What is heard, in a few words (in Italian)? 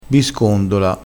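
"biscondola", dialettale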